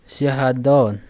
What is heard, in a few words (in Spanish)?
derretir, Pima, Yécora, Sonora; INALI